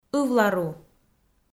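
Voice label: "ывлару", Komi, female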